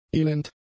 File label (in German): "elend", german; adjectives